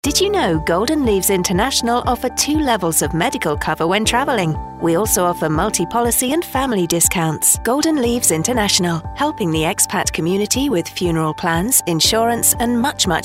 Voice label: Travel FEM